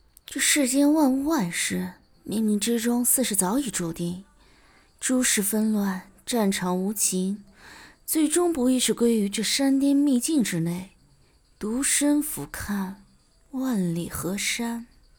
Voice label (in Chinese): 御姐